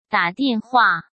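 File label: "打电话", Mandarin Chinese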